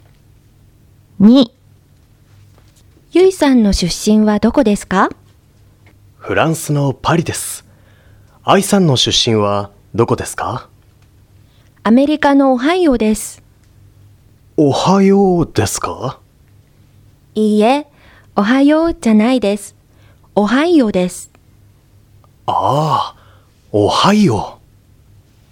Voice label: Conversation; tobira